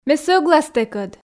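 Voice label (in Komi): ме сӧглас тэкӧд, Komi, female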